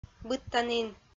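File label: "быдтанін", Komi-Zyrian, female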